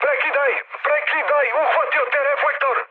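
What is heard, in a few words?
Serbian searchlite mood med; War Thunder; speech srb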